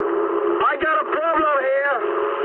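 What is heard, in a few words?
aircraft damaged mood high, speech English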